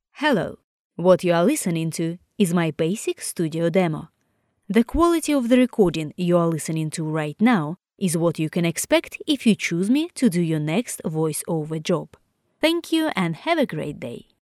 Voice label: russian female, studio sample english

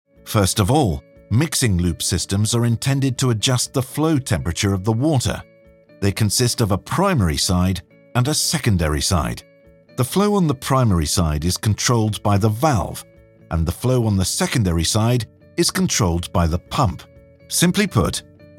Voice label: explainer video

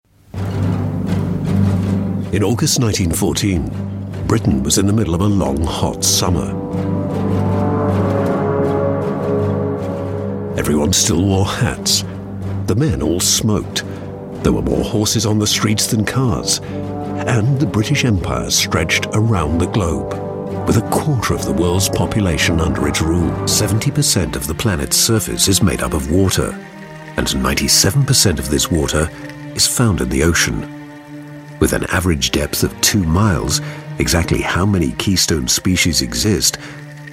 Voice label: documentary

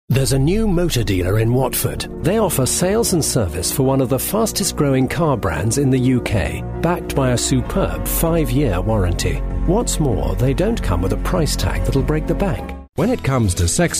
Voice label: straight reads soft

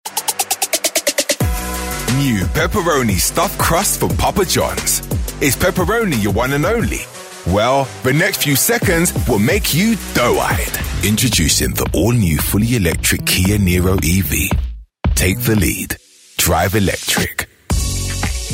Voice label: london commercial

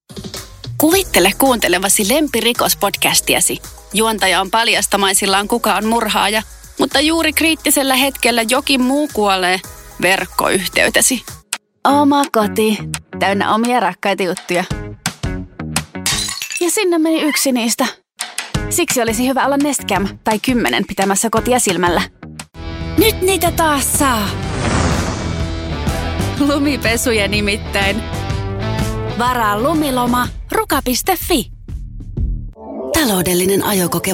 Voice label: finnish female voice commercial

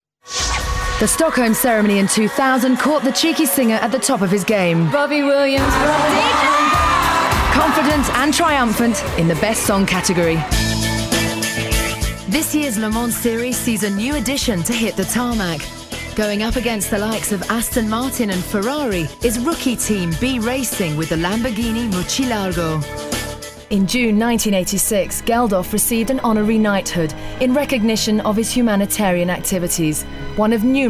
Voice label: tv narration

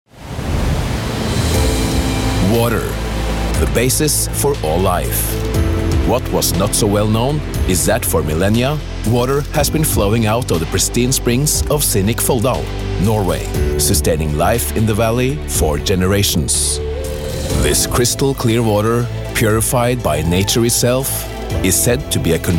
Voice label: norwegian voice english

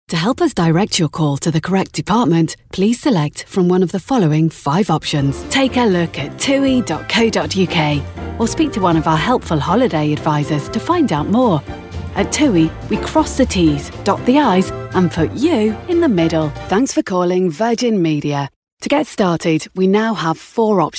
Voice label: on hold

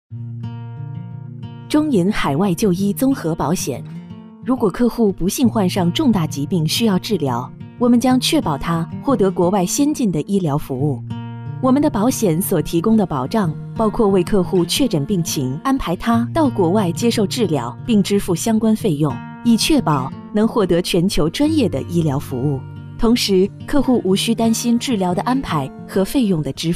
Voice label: female chinese mandarin female

